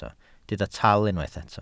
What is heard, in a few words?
"tal", welsh